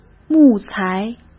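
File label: "木材", Mandarin Chinese; hsk; chinese-flashcards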